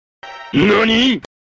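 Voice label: "nani", Japanese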